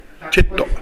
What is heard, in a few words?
"chetto", minamiyamagata